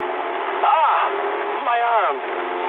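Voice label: English damaged pilot mood low; War Thunder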